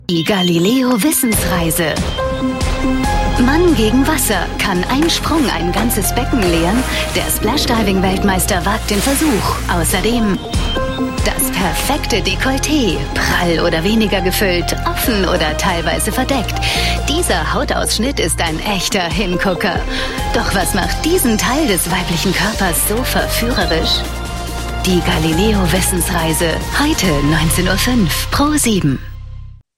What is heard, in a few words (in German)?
Trailer Sprecherin; Galileo Wissensreise; Pro7